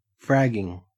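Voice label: "fragging", Australian English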